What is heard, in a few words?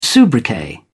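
"soubriquet", British English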